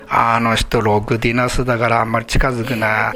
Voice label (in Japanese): "roguderasu", minami yamagata